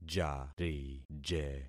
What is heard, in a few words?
"jarije", concat syllables; Affixing experiment